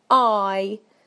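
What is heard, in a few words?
"eye", British English; PictureDictionary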